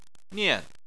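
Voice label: "nian", Chinese, fourth tone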